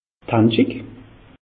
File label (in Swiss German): "handschig", alsace; meteo